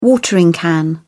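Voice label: "watering can", British English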